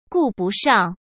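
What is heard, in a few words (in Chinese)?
"顾不上", Mandarin Chinese; hsk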